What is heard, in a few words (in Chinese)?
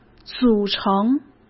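"组成", Mandarin Chinese; hsk; chinese-flashcards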